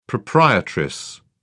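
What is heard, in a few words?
"proprietress", British English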